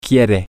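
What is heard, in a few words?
Spanish, male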